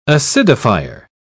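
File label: "acidifier", British English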